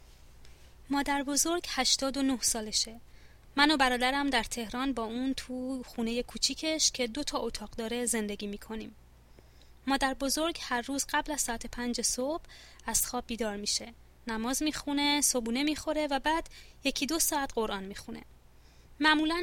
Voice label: pronunciation, Persian